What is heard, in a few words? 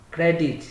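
"credit", Nigerian Pidgin, male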